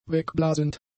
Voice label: "wegblasend", German; adjectives